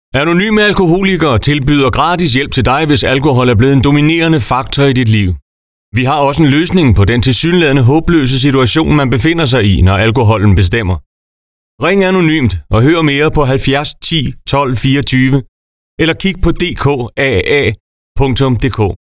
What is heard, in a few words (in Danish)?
Radiospot; AA-service i region Midtjylland